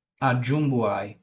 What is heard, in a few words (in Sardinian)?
"Agiumbuai", sardu